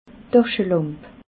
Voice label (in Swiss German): "daschelamp", alsace